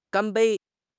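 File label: "gan1 bei1", Mandarin Chinese; HSK; HSK4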